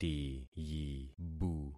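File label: "tiyibu", concatenated syllables; Affixing experiment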